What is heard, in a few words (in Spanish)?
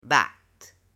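"bat", Spanish, female; JUGUETES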